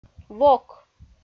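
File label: "вок", Komi, female; audio dict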